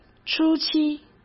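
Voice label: "初期", Mandarin Chinese; hsk; chinese-flashcards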